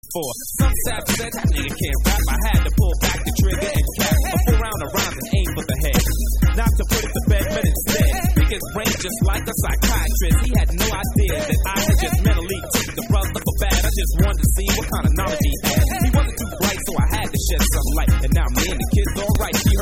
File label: DROPPIN RHYMES ON DRUMS Vocal